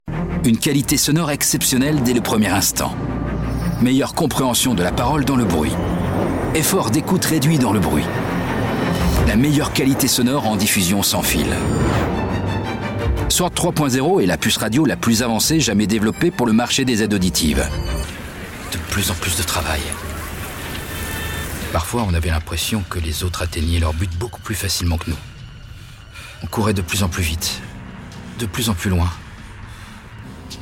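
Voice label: M, French, male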